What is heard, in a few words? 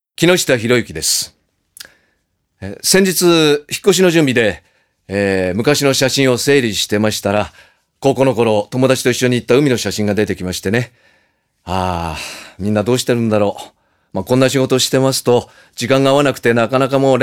freetalk, male voice